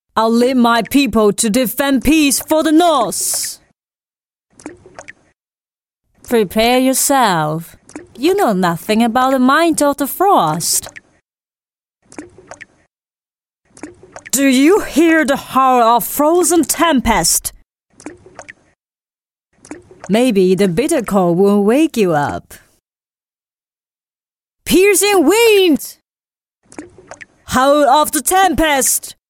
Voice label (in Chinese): 英女; 极霜女王